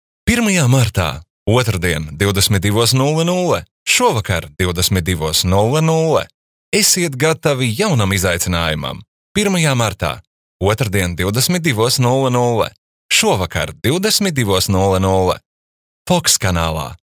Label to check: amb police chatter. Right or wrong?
Wrong